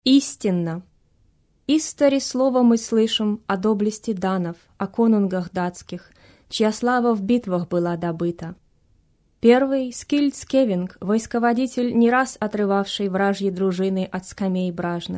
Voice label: russian